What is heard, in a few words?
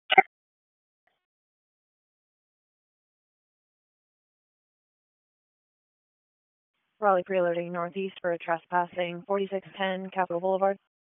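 Raleigh Police Northeast District Dispatch, p25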